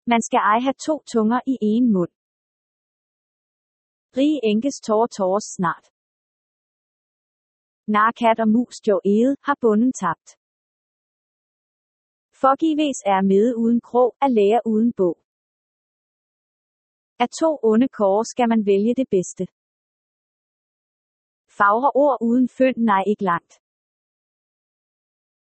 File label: danish proverbs